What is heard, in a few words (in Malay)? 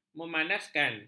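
"memanaskan", Malay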